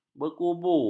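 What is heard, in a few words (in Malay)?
"berkubu", Malay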